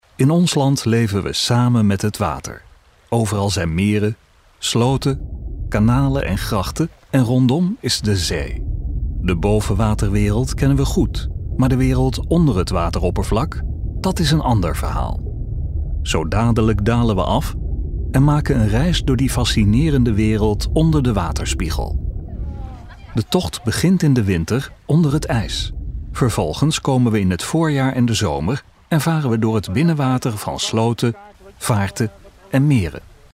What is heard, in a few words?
nl vo audiotour intimate intriguing